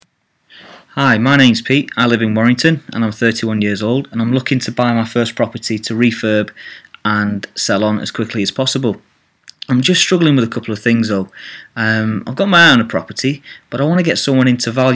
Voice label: Voicemail